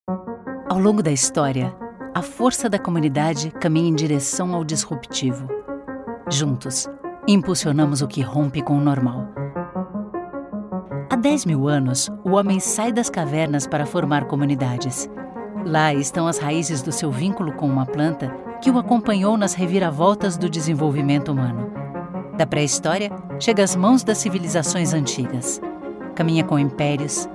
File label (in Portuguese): Institucional; Wecann